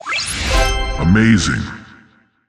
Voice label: "amazing", text; pinball